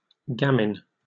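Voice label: "gamin", British English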